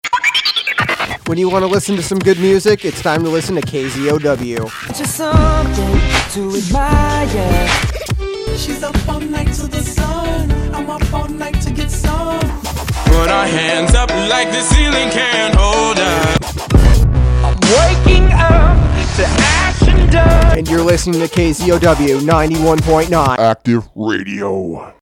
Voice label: music liner